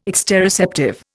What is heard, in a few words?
"exteroceptive", Indian